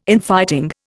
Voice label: "Infighting", Indian

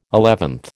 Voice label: "eleventh", American

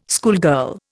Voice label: "schoolgirl", Indian

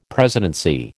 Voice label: "presidency", American

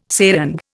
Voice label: "serang", Indian

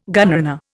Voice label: "gunrunner", Indian

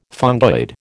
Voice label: "fungoid", American

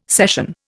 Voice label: "session", Indian

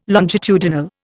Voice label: "longitudinal", Indian

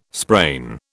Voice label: "sprain", British

